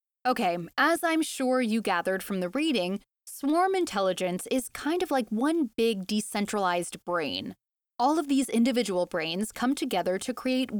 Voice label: student conversation; toefl; donation question type 3